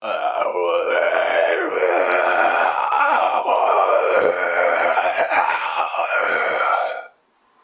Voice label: zonbi voice